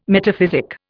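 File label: "metaphysic", English, Indian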